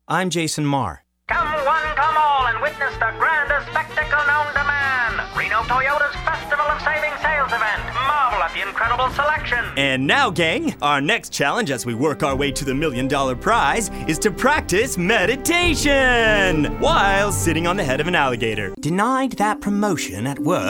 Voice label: character demo